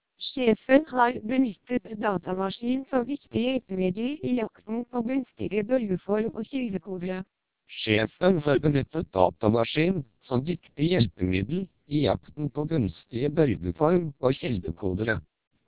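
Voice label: Norwegian speech, TWELP 480 bps vocoder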